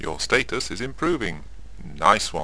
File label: chat